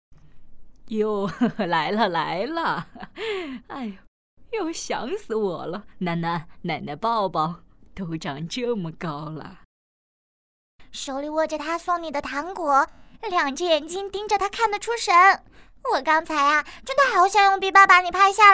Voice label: 老年少女青年中年女童

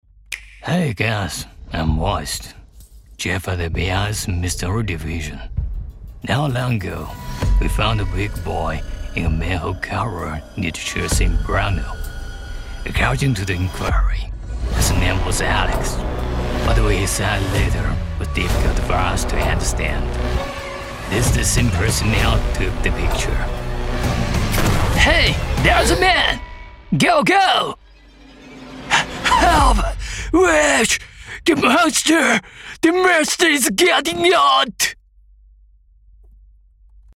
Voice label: 英文 角色 好莱坞

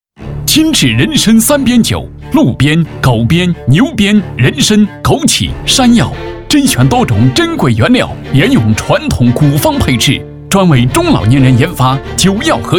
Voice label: 电视购物激昂人参三鞭酒

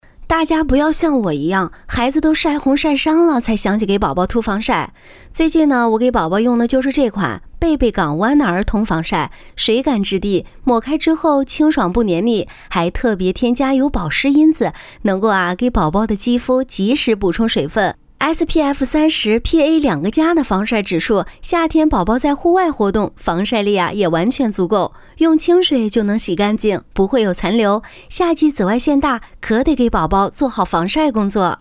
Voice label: 温柔妈妈感

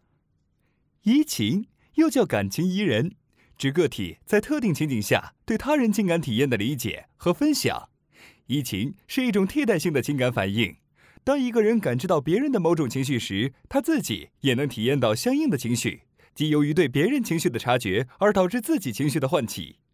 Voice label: 内容解说正式略活泼

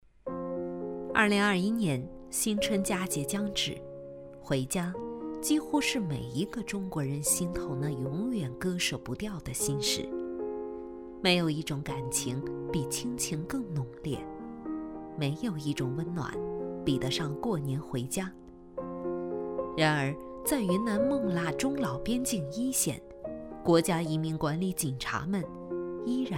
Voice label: 人民警察 有音乐

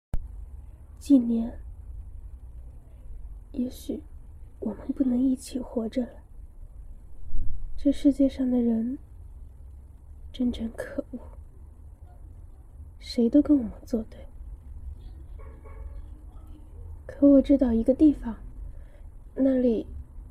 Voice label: 女声

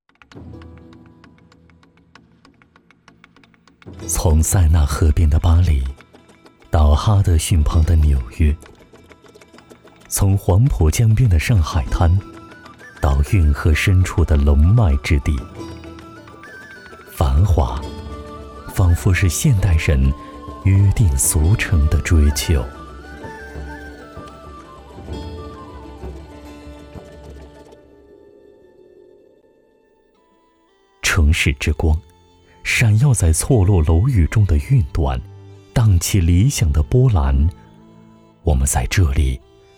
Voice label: 品质广告; 碧桂园

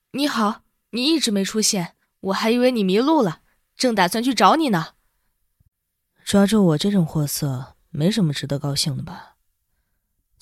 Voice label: 少年音; 翻配

